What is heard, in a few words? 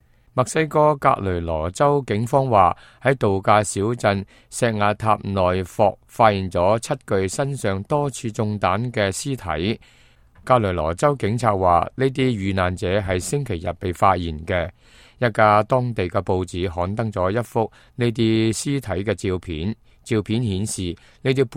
cantonese, news